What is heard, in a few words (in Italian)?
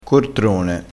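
"cortrone", dialettale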